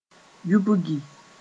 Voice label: "yubgi", moore

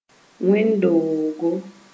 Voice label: "wẽn-doogo", Moore

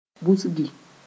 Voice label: "busgi", moore

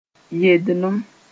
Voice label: "yendlem", moore

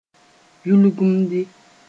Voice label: "yulgumdi", moore

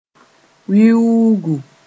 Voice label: "wiuugu", Moore

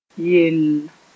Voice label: "yelll", moore

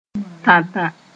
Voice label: "tã-tã", moore

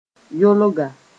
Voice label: "yolga", moore; kind of shrub

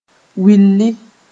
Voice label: "willi", Moore

